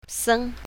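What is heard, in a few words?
"seng1", pinyin, south, female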